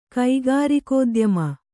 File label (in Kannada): "ಕೈಗಾರಿಕೋದ್ಯಮಿ", Kannada